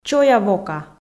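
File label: "чоя-вока", Komi, female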